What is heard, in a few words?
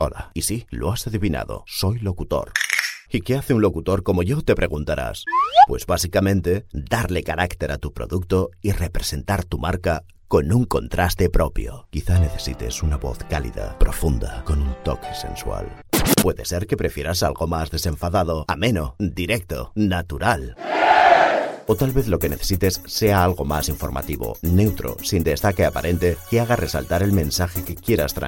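European Spanish; GoLocalise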